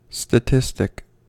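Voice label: "statistic", American English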